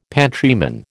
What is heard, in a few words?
"pantryman", American